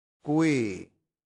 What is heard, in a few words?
"qui", latin; santillana